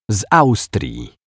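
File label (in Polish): "z Austrii", Polish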